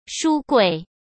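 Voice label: "书柜", Mandarin Chinese; chinese-flashcards; hsk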